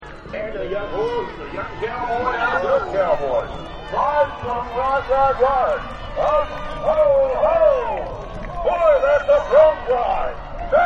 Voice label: Rodeo Announcer; Rodeos; Backgrounds